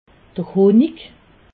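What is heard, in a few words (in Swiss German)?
"honig", Alsatian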